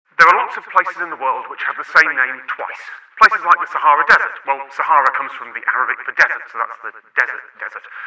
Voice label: reverb fx sample, Voice Chat Effects